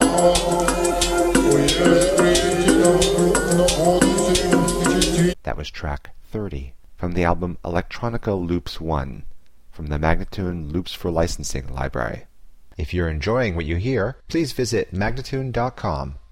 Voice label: 090 F spoken; Loops For Licensing; Electronica Loops 1